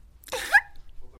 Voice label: cute anime girl